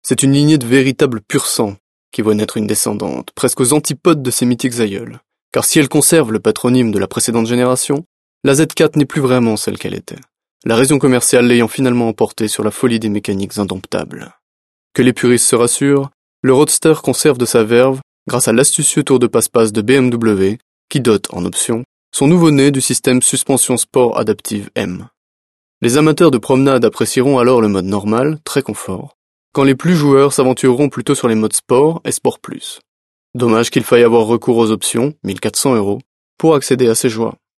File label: french male